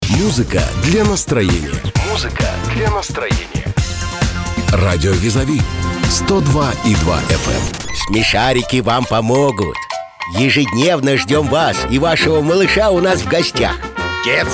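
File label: Russian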